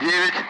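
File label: Speech Russian; Allied Flight; Digits; DCS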